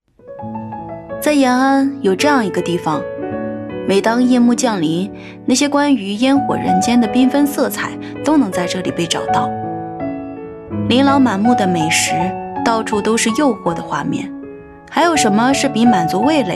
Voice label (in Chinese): 女 美食; 素人自然; 房琪风格